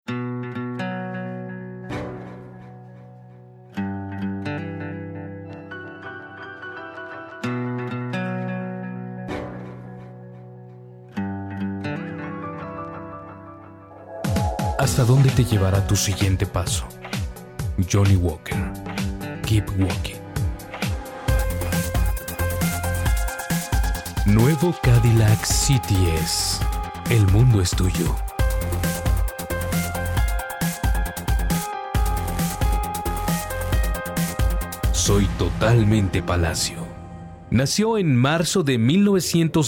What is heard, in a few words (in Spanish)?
LOCUTOR DEMO VOZ